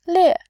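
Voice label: "lie4", Mandarin Chinese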